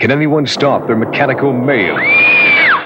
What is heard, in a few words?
Mechanical Mayhem, Spoken, Industrial